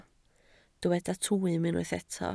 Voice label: "twym", Welsh

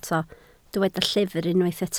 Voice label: "llyfr", Welsh

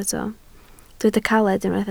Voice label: "caled", Welsh

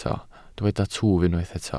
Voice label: "twf", Welsh

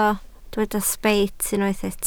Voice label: "sbeit", Welsh

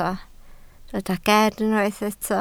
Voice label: "ger", Welsh

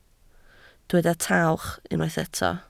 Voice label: "tawch", Welsh

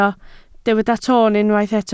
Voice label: "toon", Welsh

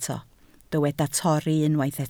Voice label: "torri", Welsh

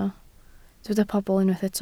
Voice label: "pobl", Welsh

